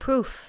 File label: "proef", english